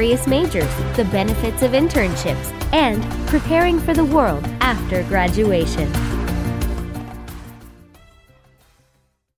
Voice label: Narration College Tour

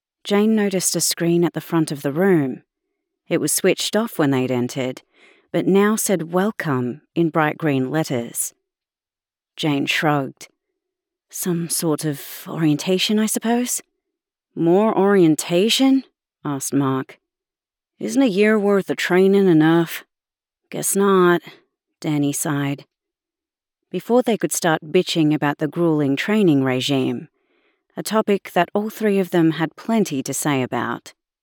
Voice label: Audiobook Fiction Sci Fi Dialogue

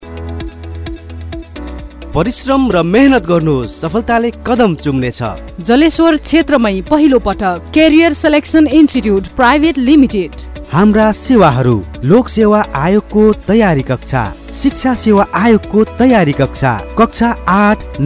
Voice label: television commercial

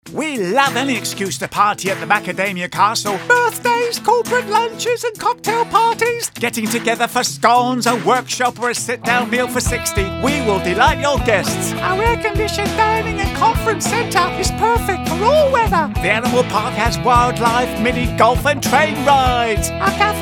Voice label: TV CM; Macadamia Castle

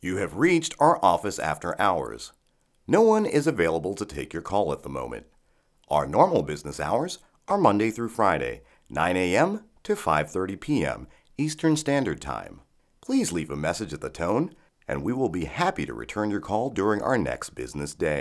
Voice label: IVR On Hold

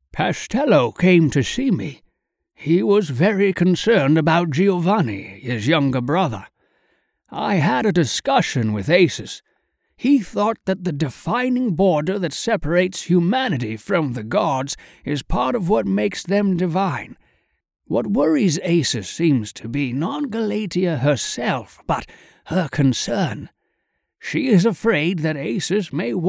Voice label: Old Man

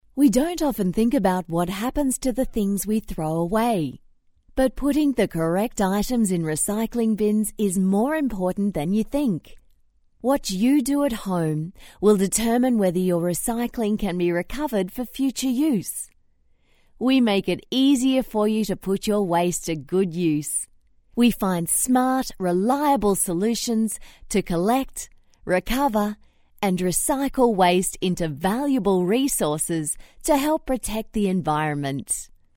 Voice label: Corporate VO

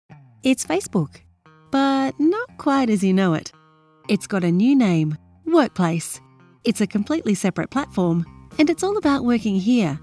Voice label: eLearning voice overs

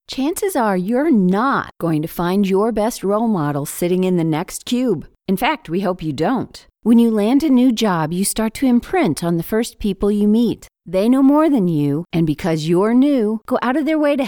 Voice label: E Learning Multiple

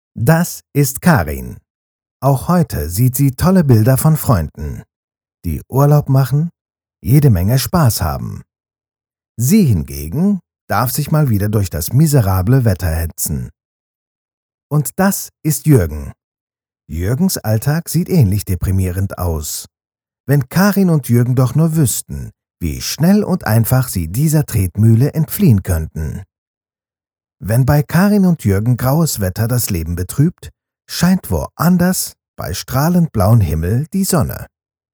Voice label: GERMAN

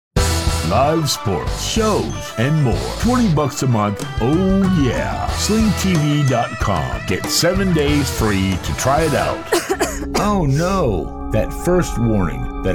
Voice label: Televivision Spots

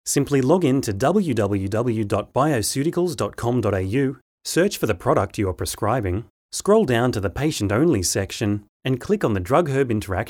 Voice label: e-learning

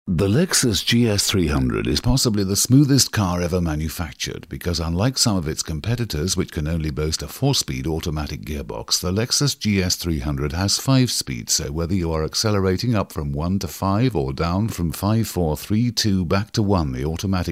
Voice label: soft sell

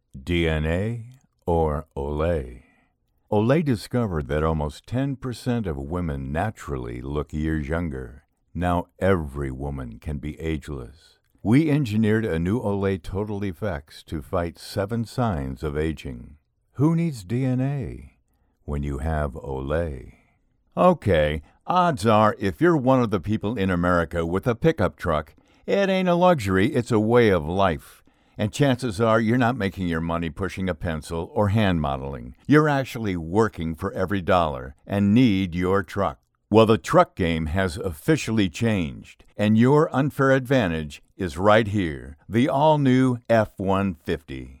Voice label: Voice Over Audition

